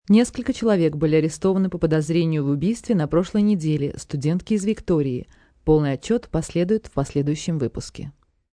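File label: News Murder, Broadcast, news, female news item in Russian on Victoria girl murder.; human voice speaking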